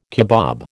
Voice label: "kebab", American